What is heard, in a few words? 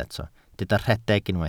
"rhedeg", Welsh